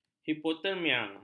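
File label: "hipotermia", Malay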